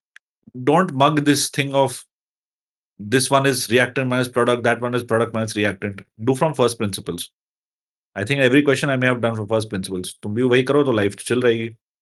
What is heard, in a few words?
voice message